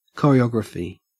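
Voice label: "choreography", English, Australian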